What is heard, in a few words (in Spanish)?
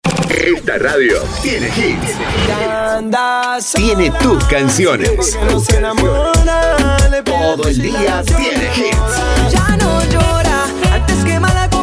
PACK TROPICAL, Spots Tropical, Voz masculina; Spots Tropical 4 todo el dia; Para vestir tu radio o programa